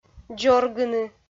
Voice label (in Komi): "дзоргыны", Komi